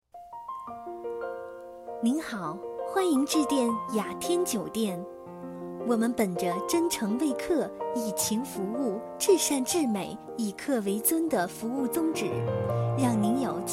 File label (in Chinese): 酒店彩铃